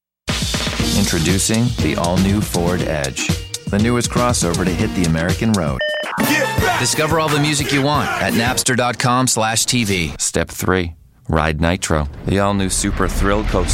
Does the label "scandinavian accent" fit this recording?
No